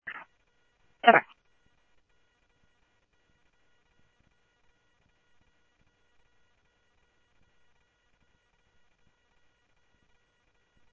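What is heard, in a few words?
Simulcast Chandler Patrol A, scanner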